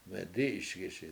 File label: "medI7iSgiSi", washo; it floats along